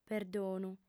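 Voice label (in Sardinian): "perdonu", female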